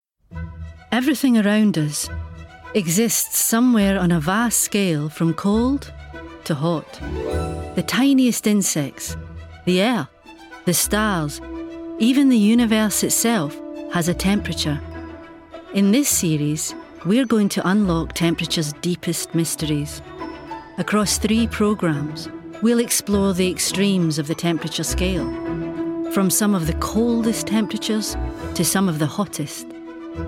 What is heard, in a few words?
Documentary Narration